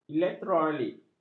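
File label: "elektrolit", Malay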